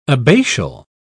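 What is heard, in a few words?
"abbatial", British English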